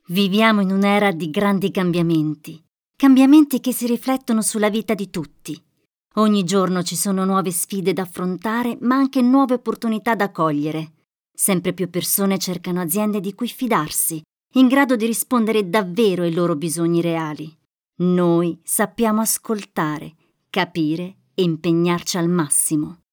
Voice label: female italian institutional explainer